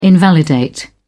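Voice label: "invalidate", English, uk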